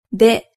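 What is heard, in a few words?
"de", Japanese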